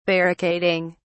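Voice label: "barricading", British English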